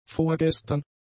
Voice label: "vorgestern", German; adjectives